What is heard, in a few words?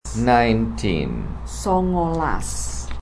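"Sangalas" Javanese; 19 nineteen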